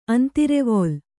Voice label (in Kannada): "ಅಂತರವಲ್ಲಿ", Kannada